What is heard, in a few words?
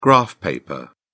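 "graph paper", British English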